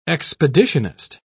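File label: "expeditionist", English, British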